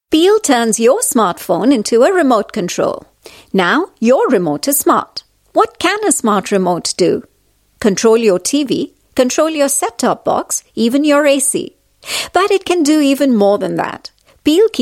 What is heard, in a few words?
Indian English, Female; Peel